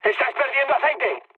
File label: damaged oil, mood high, speech; War Thunder